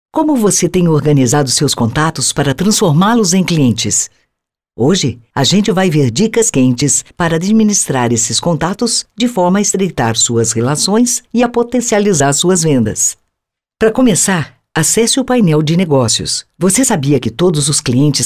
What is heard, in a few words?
E LEARNING; MARISA